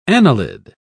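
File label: "annelid", British English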